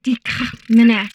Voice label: Humanoid female; Stellaris

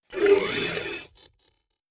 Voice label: Robot molluscoid greetings; Stellaris

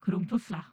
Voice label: Humanoid female greeting; Stellaris

